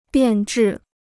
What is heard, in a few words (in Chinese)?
"变质", Chinese